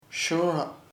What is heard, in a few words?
birth, chamacoco; nacimiento